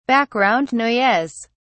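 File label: "background noise", British English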